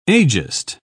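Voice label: "ageist", British English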